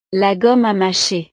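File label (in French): "la gomme a macher", French; snacks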